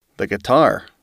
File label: "guitar", english